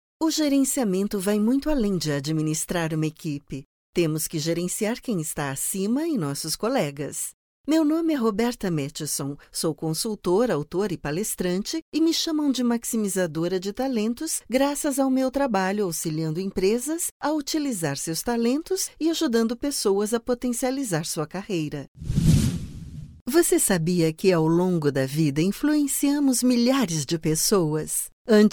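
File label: Brazilian Portuguese, e-learning; GoLocalise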